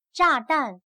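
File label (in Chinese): "炸弹", Chinese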